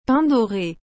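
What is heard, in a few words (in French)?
"pain doré", French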